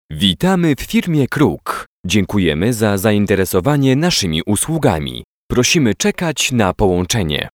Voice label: IVR